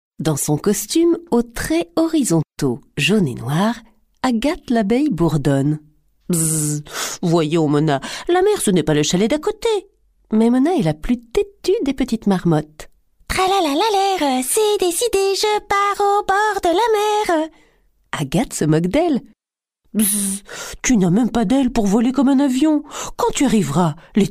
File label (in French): Narration Conte